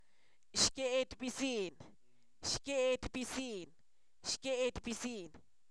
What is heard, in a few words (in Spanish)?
ratonera, Zapoteco, san miguel albarradas; animales; INALI